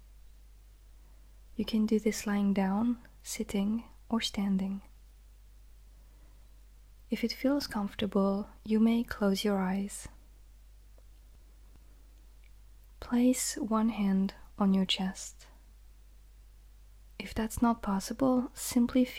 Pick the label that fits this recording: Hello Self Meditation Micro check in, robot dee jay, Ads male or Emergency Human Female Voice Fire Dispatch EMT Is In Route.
Hello Self Meditation Micro check in